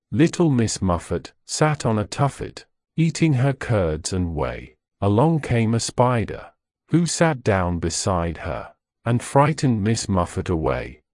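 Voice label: male British, classic rhymes; Little Miss Muffet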